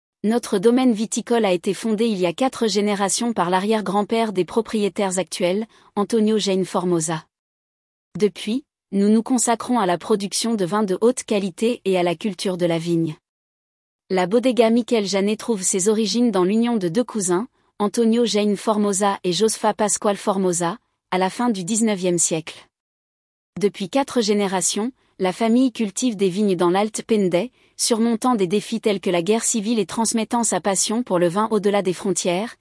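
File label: historia French; historiabodega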